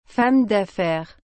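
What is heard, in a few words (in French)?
"femme daffaire", French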